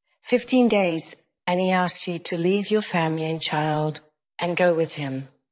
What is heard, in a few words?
Voice Acting es F